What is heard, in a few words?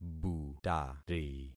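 "butari", concatenated syllables; Affixing experiment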